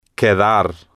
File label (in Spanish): "quedar", Spanish